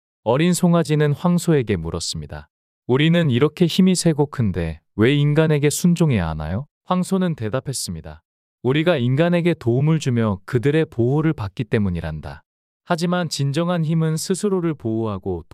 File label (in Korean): 이야기 남자; 황소와 송아지